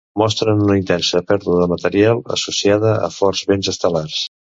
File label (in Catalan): "vents", catalan; mozilla voice